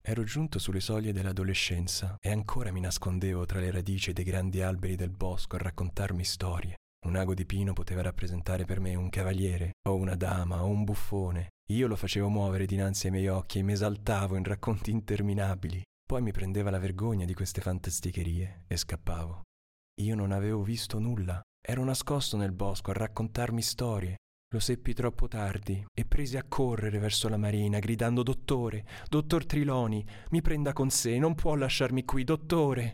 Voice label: male italian compilation

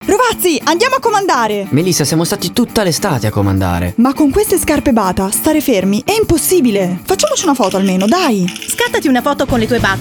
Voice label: female Italian commercial

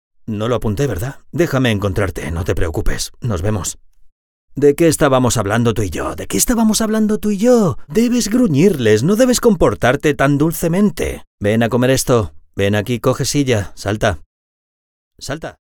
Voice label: male spanish castilian series dubbing

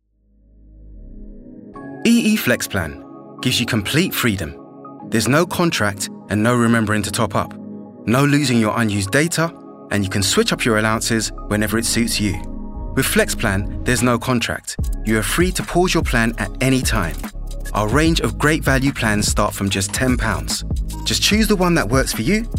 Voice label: male english corporate compilation; english southern accents

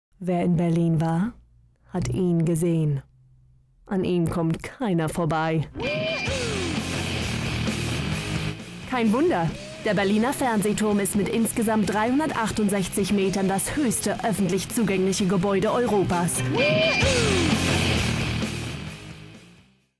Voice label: female German commercial; tv tower

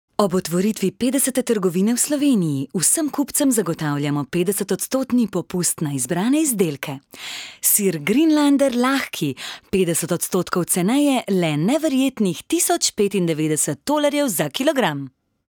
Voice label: female, Slovenian commercial

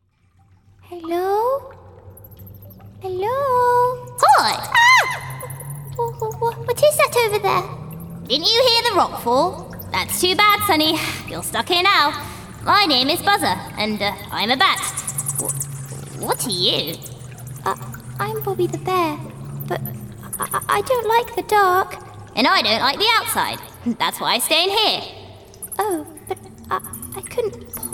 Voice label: female English animation; Devine Voices

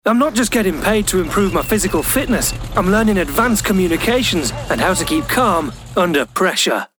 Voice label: male english light northern accent commercial; english northern accents; army

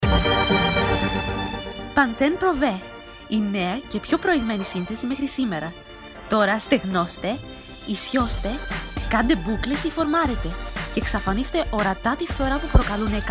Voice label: female Greek commercial; pantene

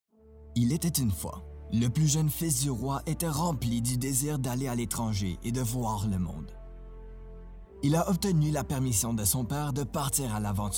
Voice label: male French Canadian audiobook compilation

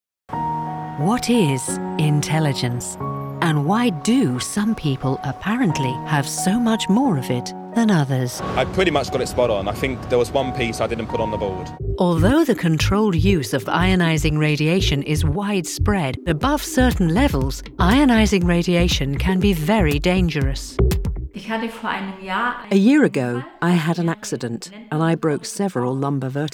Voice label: female English science documentary compilation; devine voices

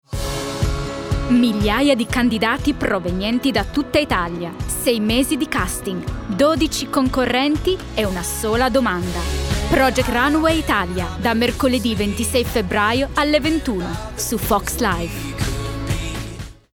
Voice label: female Italian TV promo; devine voices

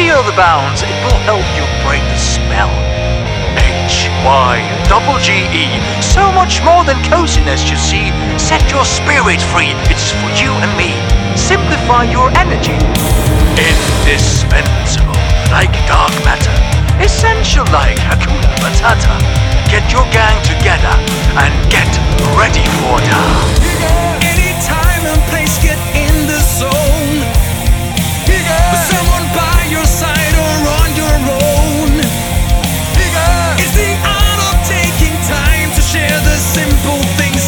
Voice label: male english with danish accent; song; devine voices

